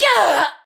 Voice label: Pain Ranged, Battle Barks; Tau; Tau Dax